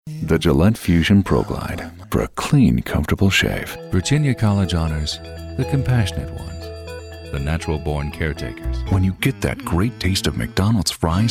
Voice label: Warm Caring